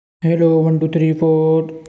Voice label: voice message